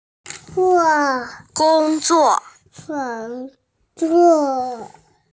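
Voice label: "gong1zuo4", Mandarin Chinese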